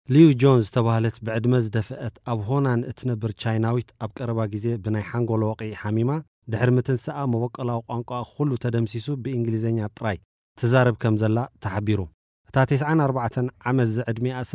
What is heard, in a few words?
Tigrinya